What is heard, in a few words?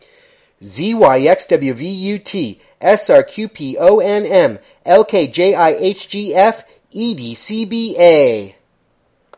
english alphabet backwards faster